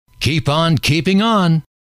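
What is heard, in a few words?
keep on hanging on, male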